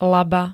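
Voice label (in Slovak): "laba", Slovak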